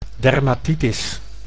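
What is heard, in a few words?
"dermatitis", Dutch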